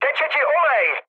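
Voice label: damaged oil, Czech, mood med; speech; War Thunder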